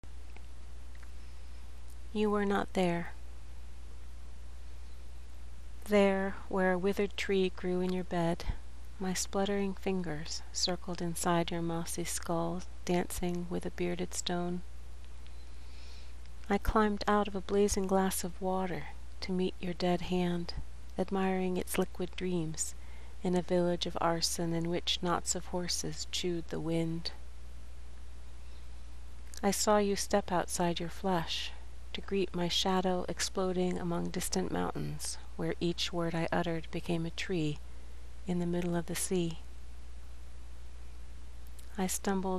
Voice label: Narration; Digby; You Were Not There